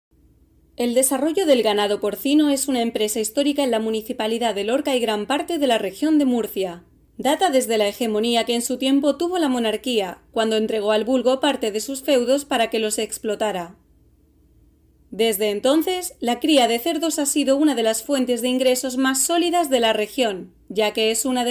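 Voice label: Spanish female; 西班牙语女; 外语; 小语种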